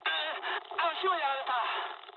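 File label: Japanese damaged pilot mood high; War Thunder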